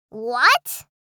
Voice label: "What", girl child kid